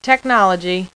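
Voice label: tech, female, voice; Web Clip Empire 150,000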